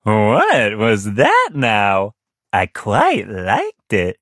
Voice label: levelup, en; cookierun

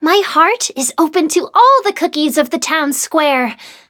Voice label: level up, en

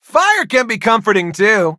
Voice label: level up, en; Cookie Run

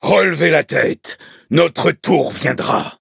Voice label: defeat, fr; Cookie Run

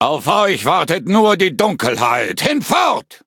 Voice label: battle, de; cookierunwiki

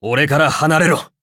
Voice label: levelup ja; cookie run

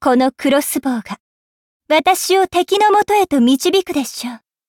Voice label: levelup ja; cookie run

